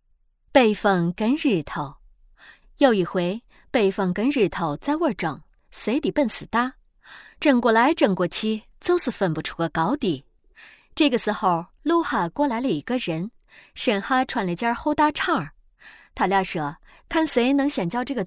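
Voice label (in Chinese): 西安话讲故事; 佟湘玉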